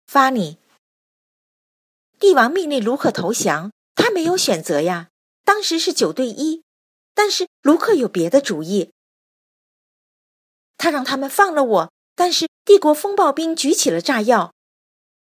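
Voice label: Childs voice, Chinese; GoLocalise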